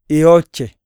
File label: swim, iyasa